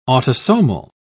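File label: "autosomal", English, British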